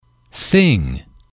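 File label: "thing", English, male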